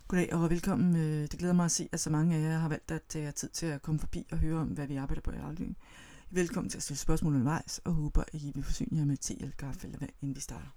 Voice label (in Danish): Taler hurtigt og mumlende